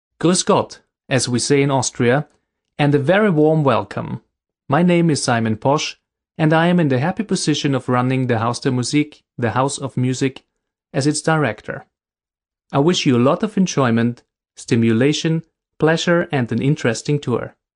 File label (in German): Begrüßung Englisch